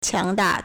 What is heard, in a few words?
"qiang2 da4", Chinese